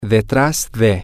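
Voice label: behind, Spanish, male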